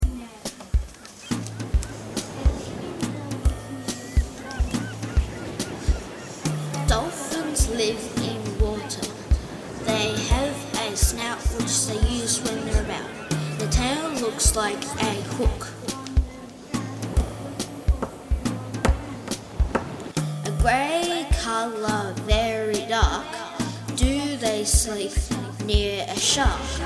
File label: poetry; Dolphins